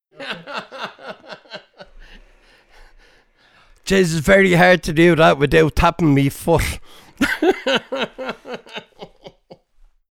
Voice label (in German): Irland lachen reden